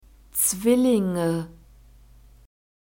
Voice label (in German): "zwillinge", German